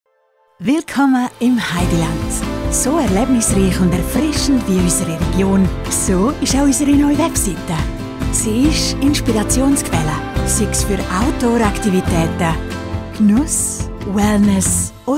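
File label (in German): Werbung, Swiss, hoch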